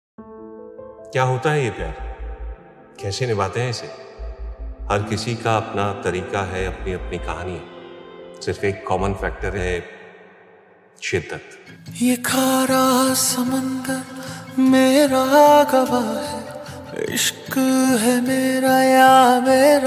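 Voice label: dialogue ringtone hindi ringtone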